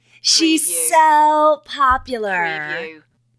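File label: Female She So Popular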